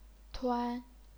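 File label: "tuan", chinese pinyin, first tone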